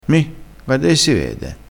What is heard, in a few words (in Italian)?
"mi", crespinese